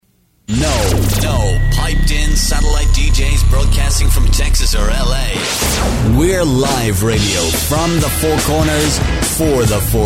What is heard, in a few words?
US Stations Sweepers